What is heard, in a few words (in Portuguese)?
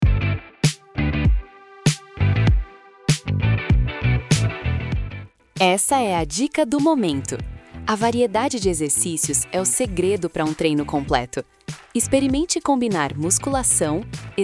Vinheta Comercial Profissional em Audio Diversidade de Exercicios Academia